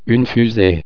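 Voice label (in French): "fusee", French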